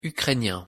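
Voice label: "ukrainien", French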